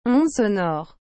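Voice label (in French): "onde sonore", French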